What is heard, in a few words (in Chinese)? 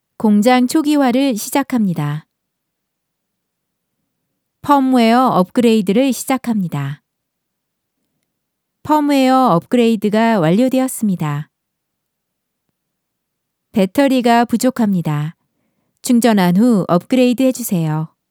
韩语试音